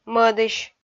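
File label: "мӧдысь", Komi-Zyrian, female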